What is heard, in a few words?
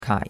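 "kai3", Chinese; Cours-de-Chinois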